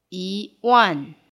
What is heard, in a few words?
"yi wan", Mandarin, numbers